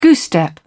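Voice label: "goose step", British English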